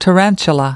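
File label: "tarantula", US English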